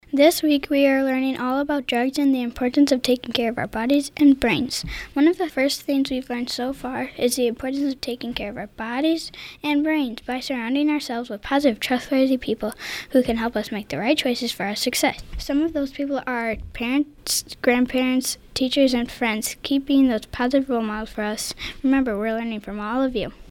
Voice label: Announcement